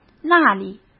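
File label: "那里", Mandarin Chinese; hsk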